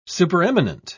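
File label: "supereminent", English, uk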